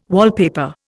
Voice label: "wallpaper", Indian

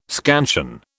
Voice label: "scansion", British

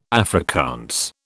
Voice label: "Afrikaans", British

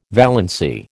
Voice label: "valency", American

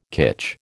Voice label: "kitsch", American

